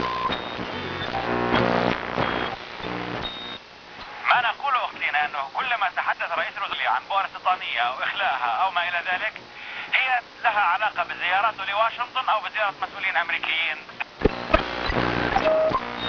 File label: arabic radio, chatter